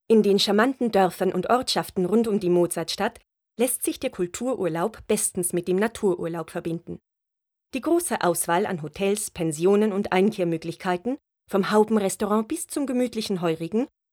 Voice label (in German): Dokumentation